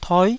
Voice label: "toi9", taiwanese Syllables